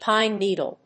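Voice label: "pine needle", English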